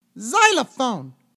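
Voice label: "xylophone", English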